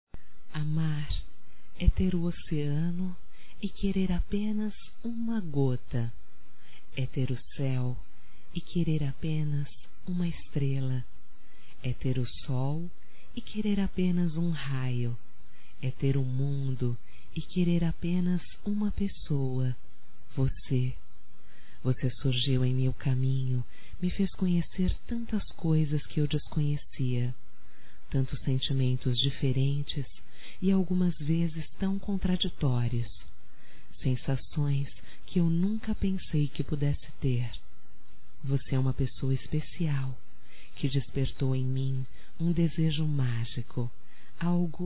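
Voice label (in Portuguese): voz - Romântica Poesia (F); fem; Telemensagens 2017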